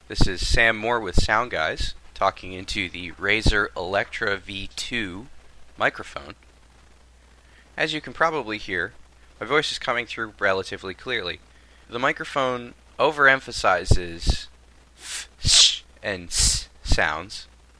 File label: Mic Demo; Razer Electra V2